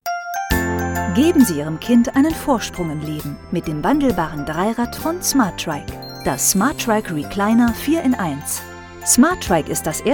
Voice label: imagefilm german; corporate film; Smart-Trike 4 in 1